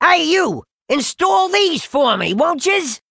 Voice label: Male Goblin Play